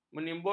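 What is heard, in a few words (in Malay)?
"menimbulkan", Malay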